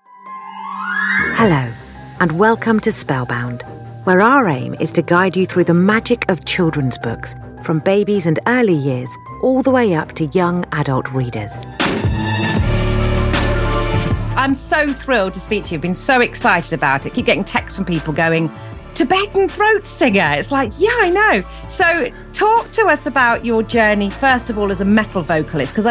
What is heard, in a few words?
podcasts